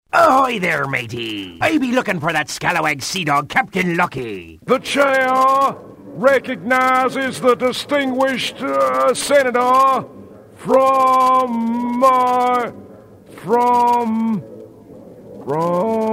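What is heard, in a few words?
animation male, Voice Over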